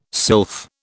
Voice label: "sylph", British